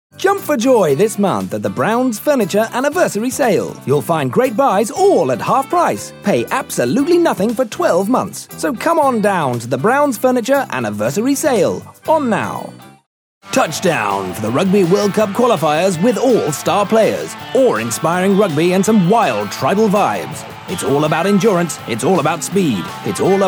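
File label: British English, TV commercial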